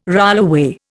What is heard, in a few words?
"rollaway", Indian